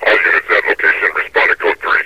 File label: all units respond code 3, metrocop zombie; scmod